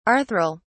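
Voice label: "arthral", English, British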